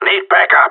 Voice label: need help, radio; GSG9

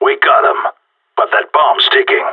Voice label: no enemies left bomb, radio; GSG9

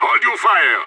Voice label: friendly fire, radio; SAS

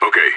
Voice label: affirmative, radio; SAS

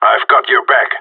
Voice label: covering, radio; GSG9

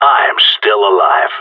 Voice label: last man standing, radio; GSG9

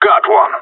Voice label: enemy down, radio; GSG9

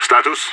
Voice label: request report, radio; SAS

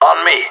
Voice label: follow me, radio; GSG9

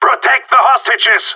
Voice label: peptalk hostages, radio; GSG9

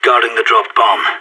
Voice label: guarding loose bomb, radio; SAS

